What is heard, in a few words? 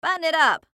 Cartoon Voice Female; Cartoon Voices; Blastwave